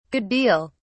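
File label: "good deal", British English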